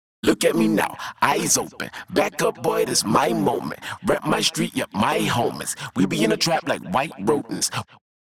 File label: vocal harmony on